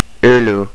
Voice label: beer, estonian

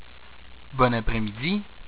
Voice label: "Bon apres-midi", French

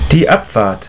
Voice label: "Abfahrt", German

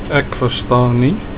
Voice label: not understand, Afrikaans

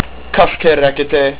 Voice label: You speak, estonian

